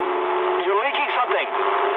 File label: eng tank damaged mood med, speech eng; War Thunder